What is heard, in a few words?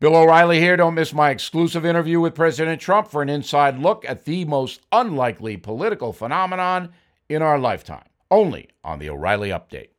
Promo; Bill O’Reilly’s One-Hour Interview With President Donald Trump; Bill O’Reilly 1-Hour Special; Key Networks; Thanksgiving